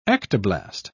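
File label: "ectoblast", British English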